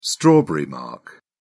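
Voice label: "strawberry mark", English, British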